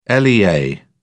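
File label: "lea", English, British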